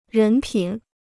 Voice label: "人品", Chinese

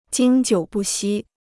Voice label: "经久不息", Chinese

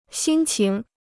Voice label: "心情", Chinese

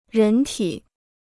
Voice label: "人体", Chinese

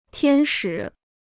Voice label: "天使", Chinese

